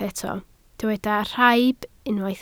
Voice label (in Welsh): "rhaib", Welsh